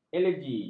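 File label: "elegi", Malay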